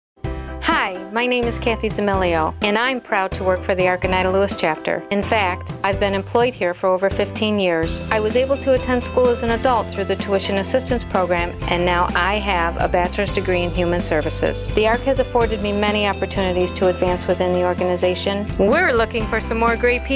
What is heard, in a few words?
Radio Commercial